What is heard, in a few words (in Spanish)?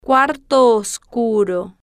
"cuarto Oscuro", Spanish